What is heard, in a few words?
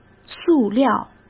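"塑料", Mandarin Chinese; chinese flashcards; hsk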